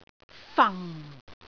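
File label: "fang4", Cantonese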